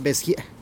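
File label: "bez gyee", zapotec; rabbit from mountain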